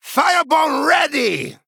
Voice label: Male Troll Play

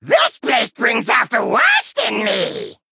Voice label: Male Vulpera Play

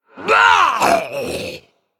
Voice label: Male Undead Death

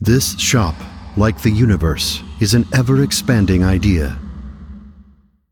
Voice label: Male Constellar After Shop Upgrade

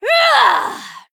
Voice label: Female Night Elf Attack

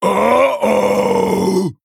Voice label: Male Abomination Attack

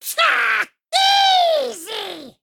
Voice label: Male Demon Attack

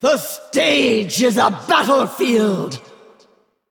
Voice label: Female Valkyr Emote Start

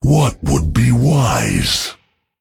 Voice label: Male Drust Thinking

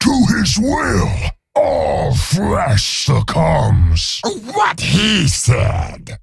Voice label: Male Ogre Play

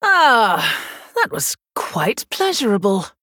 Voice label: Female Human; Prestor; Scabbs

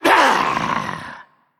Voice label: Male Undead Death

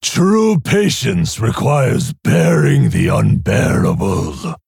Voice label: Male Orc Idle; Samuro; Kurtrus

